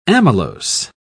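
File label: "amylose", British English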